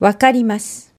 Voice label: "wakarimasu", Japanese

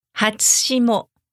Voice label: "hatsushimo", japanese

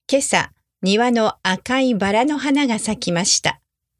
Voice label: red rose normal, Japanese

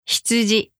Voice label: "hitsuji", Japanese

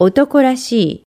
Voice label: "otokorashii", Japanese

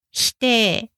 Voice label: "hitei", Japanese

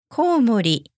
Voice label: "koumori", japanese

